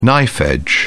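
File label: "knife-edge", English, British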